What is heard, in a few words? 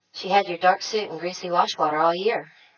speech f, dereverb